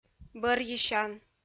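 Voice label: "бӧрйысян", Komi-Zyrian, female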